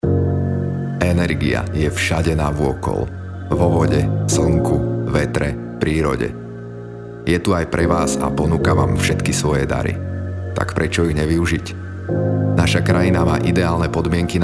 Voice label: voiceover